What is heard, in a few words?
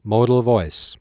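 modal, human voice; EGG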